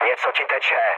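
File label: tank damaged, Czech, mood low; War Thunder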